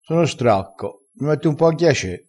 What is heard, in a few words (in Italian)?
"ghiace", crespinese